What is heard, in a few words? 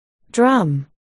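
"drum", spoken word, articulation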